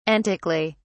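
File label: "antically", English, British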